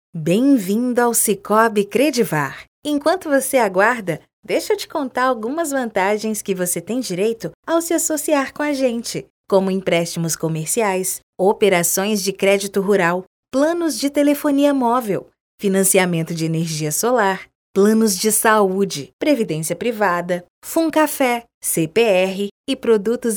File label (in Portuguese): Espera telefônica conversada